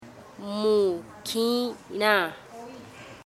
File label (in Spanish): Embera Chamí Hombre mukira